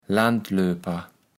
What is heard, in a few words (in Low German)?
"Landlöper", kaevels platt